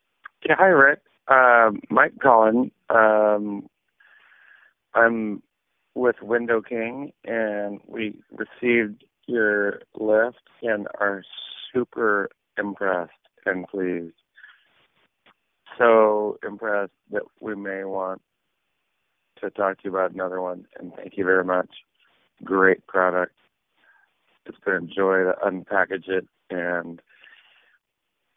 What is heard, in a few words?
voicemail